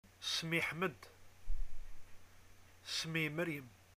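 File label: my name is, Algerian arabic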